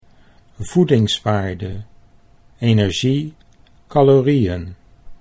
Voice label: voedingswaarde calorieen, Dutch

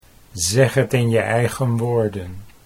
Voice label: zegt in je eigen woorden, Dutch

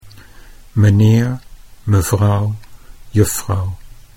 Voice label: "meneer mevrouw", Dutch

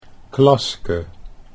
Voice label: "Klaske", Dutch

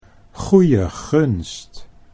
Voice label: "goe je gunst", Dutch

